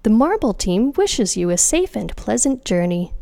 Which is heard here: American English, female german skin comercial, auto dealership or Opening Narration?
American English